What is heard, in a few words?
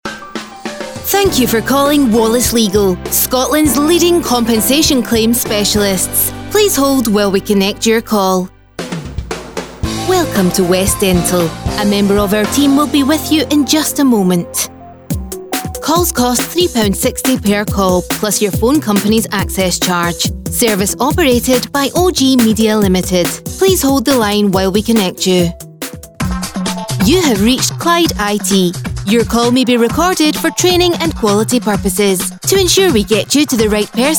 Scottish IVR; AdvantageIVR